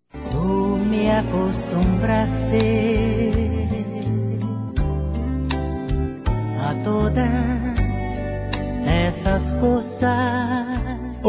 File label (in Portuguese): NOIVO P NOIVA M, Telemensagens Casamentos